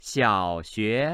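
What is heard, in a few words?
"xiao3xue2", Chinese, male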